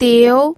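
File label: "diu", pinyin, second tone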